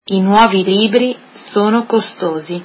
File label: new books are expensive, italian